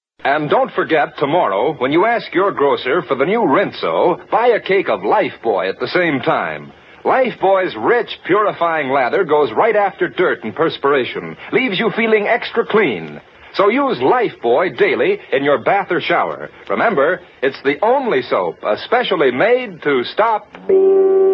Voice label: Old Radio Ads; LifeBuoy